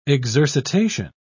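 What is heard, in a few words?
"exercitation", British English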